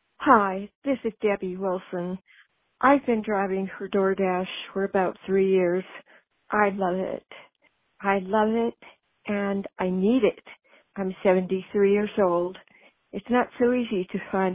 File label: Voicemail